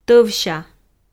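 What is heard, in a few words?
"тӧвся", Komi, female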